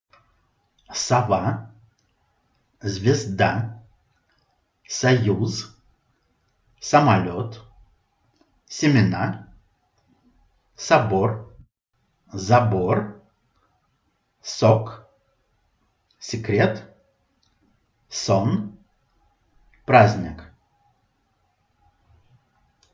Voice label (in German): russisch